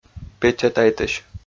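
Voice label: "печатайтысь", Komi, male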